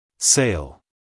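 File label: "sale", American English male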